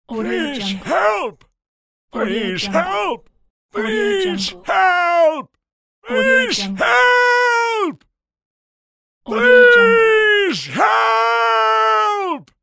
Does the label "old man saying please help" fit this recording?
Yes